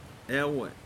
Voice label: "Mouth", Pingelapese

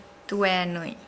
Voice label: Nukuoro; broom

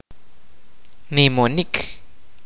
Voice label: "push", kaqchikel

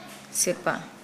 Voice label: Nukuoro; move to one side

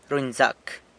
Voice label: "runyzac", San Lucas Quiaviní; fixes (something)

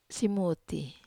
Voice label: "simuti", Wamesa

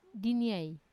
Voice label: "diniai", stove, Wamesa

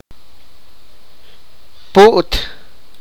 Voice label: sipakapense; butterfly

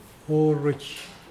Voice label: "all", Mokilese

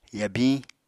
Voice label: "yabiñ", Apatani

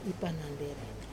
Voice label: "panande", forget, Wamesa

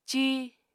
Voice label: "cíi", Apatani

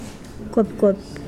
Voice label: "cough", Mokilese

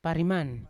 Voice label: "pariman" bitter, Yawa

